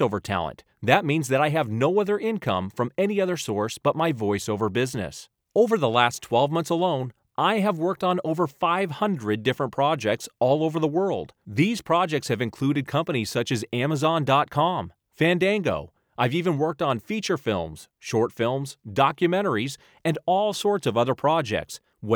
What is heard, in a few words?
Studio Sample